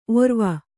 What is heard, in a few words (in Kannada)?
"ಔರ್ವ", Kannada